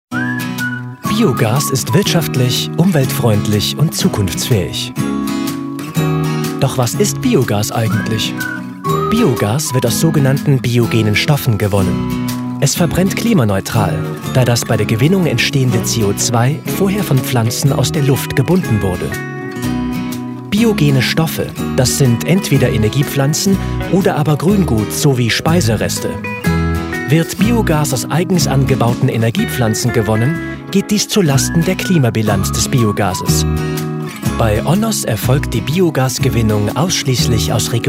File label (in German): Erklaerfilm technisch; Biogas